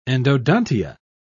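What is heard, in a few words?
"endodontia", British English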